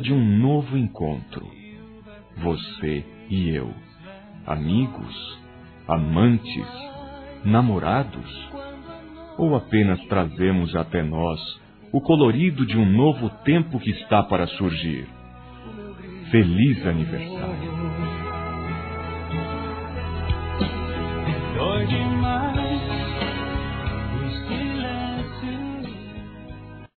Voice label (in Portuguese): amizade colorida, Telemensagens, Masc